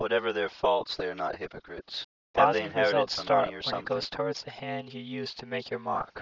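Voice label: mixture 2 speakers male inharmonic jitter; Inharmonic: jittered; Timit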